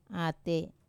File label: "ate", good, Wamesa